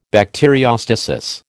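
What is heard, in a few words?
"bacteriostasis", American English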